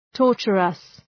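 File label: "torturous", English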